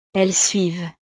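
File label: "elles suivent", French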